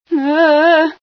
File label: female sci fear